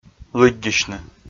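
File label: "лыддьысьны", Komi, male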